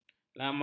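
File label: "lamar", Malay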